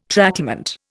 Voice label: "tracklement", Indian

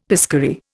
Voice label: "piscary", Indian; Dictionary

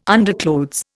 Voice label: "underclothes", Indian

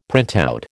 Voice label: "printout", American

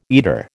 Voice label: "eater", American

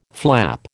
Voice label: "flap", American

